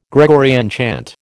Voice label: "Gregorian chant", American